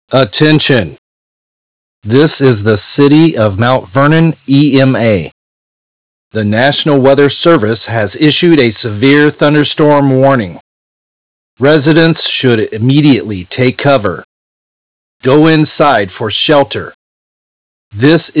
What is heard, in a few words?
thunderstorm warning message